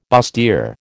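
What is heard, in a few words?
"bustier", American English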